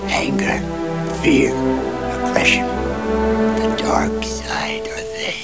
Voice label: anger fear aggression